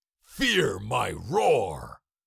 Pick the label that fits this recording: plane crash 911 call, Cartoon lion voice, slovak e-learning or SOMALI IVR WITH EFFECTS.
Cartoon lion voice